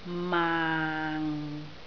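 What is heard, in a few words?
"maang6", Cantonese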